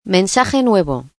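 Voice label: New Message (Spanish), voice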